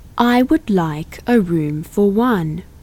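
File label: English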